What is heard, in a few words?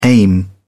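"aim", British English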